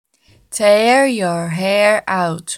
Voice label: tear you hair out pronunciation slow; Best English Nitra